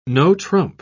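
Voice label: "no-trump", British English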